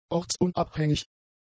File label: "ortsunabhängig", German; adjectives